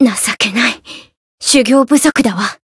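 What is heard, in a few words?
Voice win barely, Japanese; kikyou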